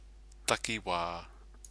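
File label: "takiwa", Māori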